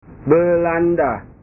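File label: "belanda", Indonesian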